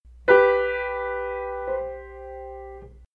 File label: male cadence; Kepler; RE MI FA